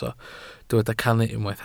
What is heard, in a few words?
"cannu", Welsh